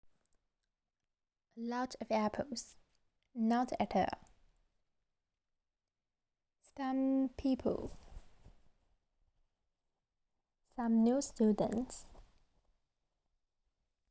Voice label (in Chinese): 简单英语